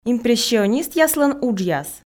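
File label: "импрессионистъяслӧн уджъяс", Komi, female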